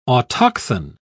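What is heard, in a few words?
"autochthon", British English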